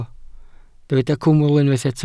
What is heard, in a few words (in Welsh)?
"cwmwl" Welsh